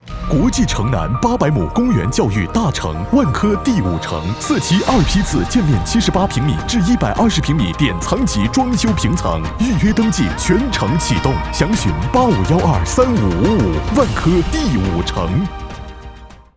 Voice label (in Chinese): 广告 大气磅礴; 万科地产城